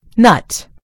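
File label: "nut", British English; gcompris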